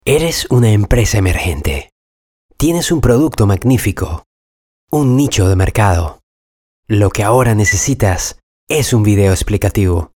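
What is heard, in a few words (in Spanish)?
corporativo, Venezuela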